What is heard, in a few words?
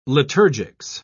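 "liturgics", British English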